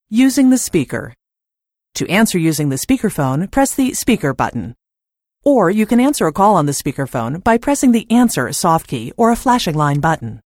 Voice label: answering calls speaker